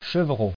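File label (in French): "chevreau" French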